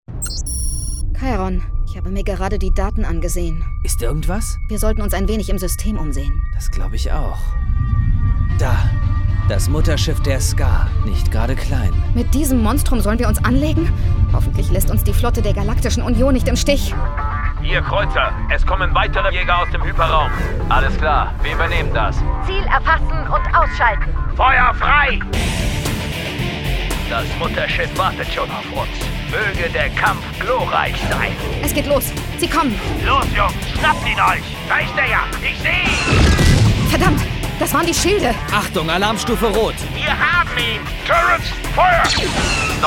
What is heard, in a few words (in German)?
deutsch; Dark Star One